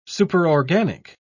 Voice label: "superorganic", en uk